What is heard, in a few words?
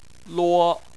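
"luo", Chinese, first tone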